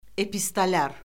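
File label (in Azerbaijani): "epistolyar", Azerbaijani; Orfoqrafiya Lüğəti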